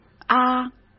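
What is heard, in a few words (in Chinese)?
"啊", Mandarin Chinese; chinese flashcards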